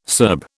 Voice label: "Serb", British